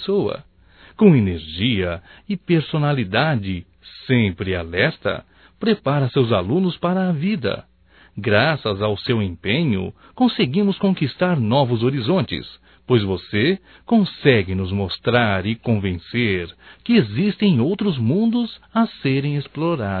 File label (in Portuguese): HOMENAGEM A PROFESSORA, Telemensagens Só Voz masc; HOMENAGENS